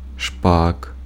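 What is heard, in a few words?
Ukrainian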